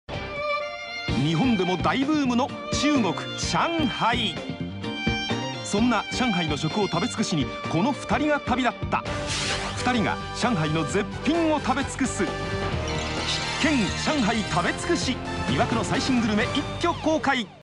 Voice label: male